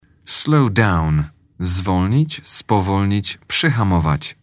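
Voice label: "slow down", English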